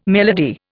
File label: "melody", Indian